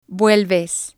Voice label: "vuelves", Spanish

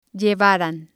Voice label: "llevaran", spanish

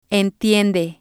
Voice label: "entiende", Spanish

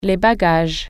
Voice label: "baggage", French

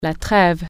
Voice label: "cease fire", French

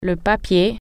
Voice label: "paper", french

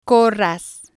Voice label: "corras", spanish

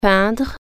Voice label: paint, french

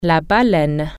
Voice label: "whale", French

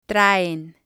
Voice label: "traen", Spanish

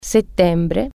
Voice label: september, italian

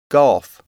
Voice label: "golf", English

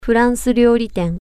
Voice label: french restaurant, japanese

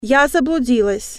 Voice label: i am lost female, russian

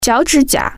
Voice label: "toenail", Chinese

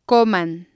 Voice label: "coman", spanish

